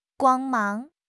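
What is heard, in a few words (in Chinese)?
"光芒", Chinese; HSK6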